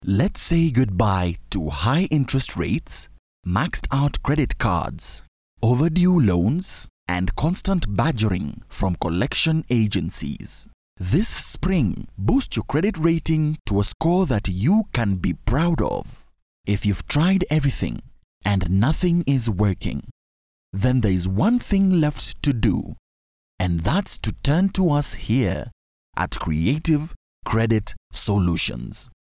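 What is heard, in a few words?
African English, male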